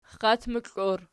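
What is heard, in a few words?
"xxatmoklor" nominative, Archi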